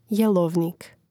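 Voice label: "jelovnik", Croatian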